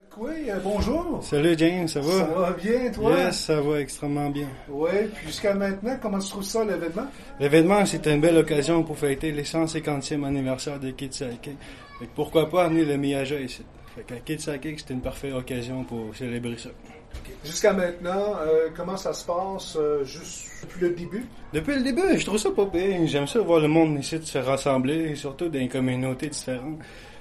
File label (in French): entrevue